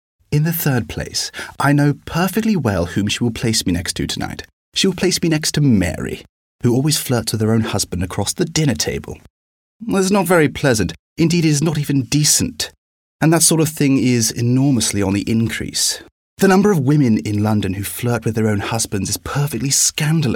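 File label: English UK, character; GoLocalise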